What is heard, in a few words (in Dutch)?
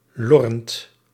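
"lorrend", Dutch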